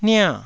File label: "nia4", Taiwanese syllable